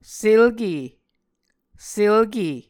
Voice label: "selke", Muscogee